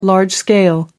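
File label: "large-scale", British English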